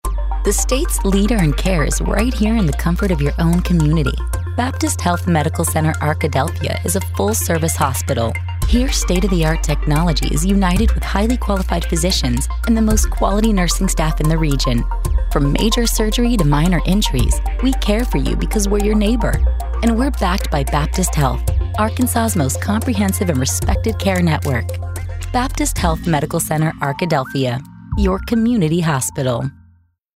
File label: Informative